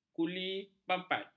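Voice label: "kulimpapat", Malay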